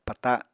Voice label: "pata", Apatani

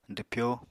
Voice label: "dwpyo", Apatani

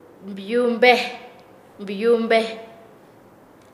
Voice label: Nasa Yuwe; oro; paez

